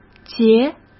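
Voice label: "结", Mandarin Chinese; chinese flashcards; hsk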